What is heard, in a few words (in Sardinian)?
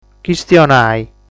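"Chistionai", Sardinian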